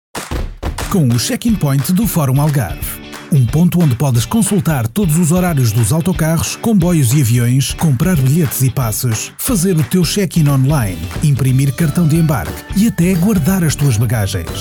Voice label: Commercial

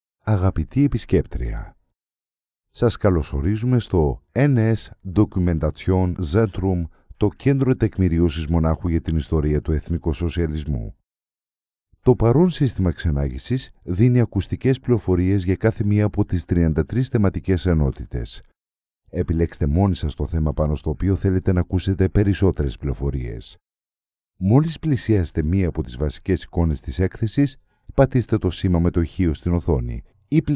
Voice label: Museum Narration

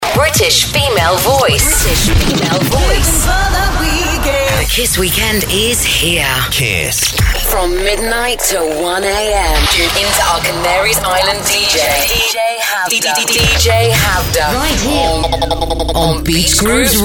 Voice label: STATION IMAGE - NEW STATION IMAGING

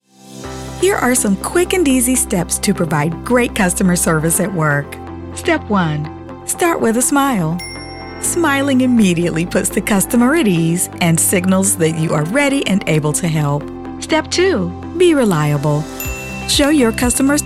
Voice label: Voice E learning Narration

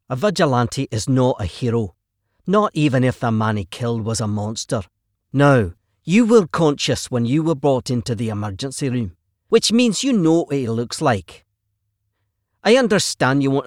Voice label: Scottish

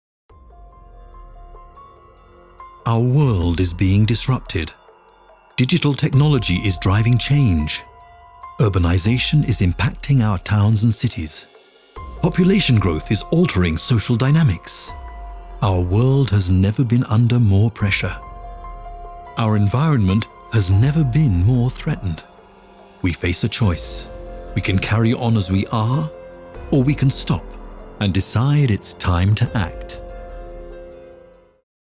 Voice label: documentary

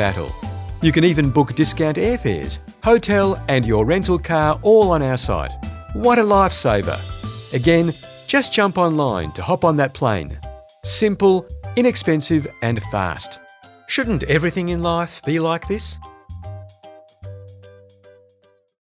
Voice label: commercial on line travel

